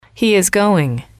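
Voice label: "he is going", English, female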